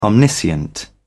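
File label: "omniscient", English, British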